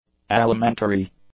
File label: "alimentary", English; adjectives